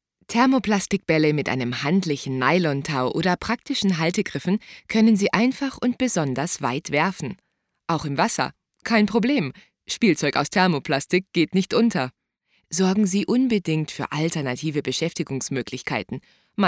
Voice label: female German e-learning